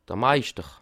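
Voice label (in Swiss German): "meischter", Bas Rhin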